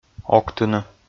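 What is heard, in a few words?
"октыны", Komi, male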